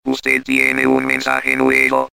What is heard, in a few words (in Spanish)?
robot mensaje nuevo; children